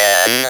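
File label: ROBVOX, robot voice; X-Static Goldmine 1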